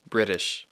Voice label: "British", English